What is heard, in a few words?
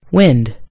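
"wind", American English; gcompris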